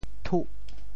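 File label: "thuh8", Teochew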